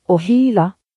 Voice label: scream; gcompris-data